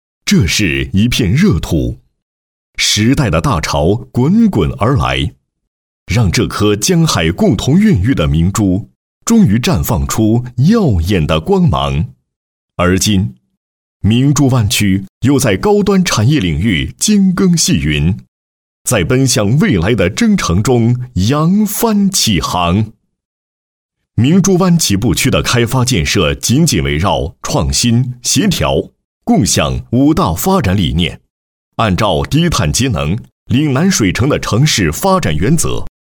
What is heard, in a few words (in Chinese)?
男 地区建设; 自由贸易试验区广州南沙新区片区